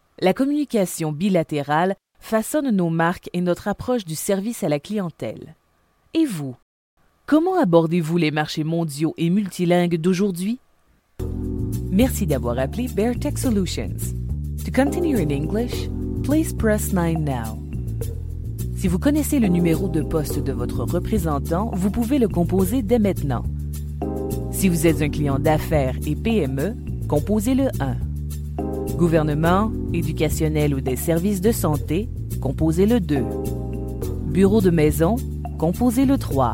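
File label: French Canadian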